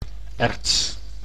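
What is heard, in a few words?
"erts", Dutch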